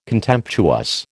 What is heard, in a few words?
"contemptuous", American